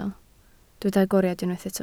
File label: "agoriad", Welsh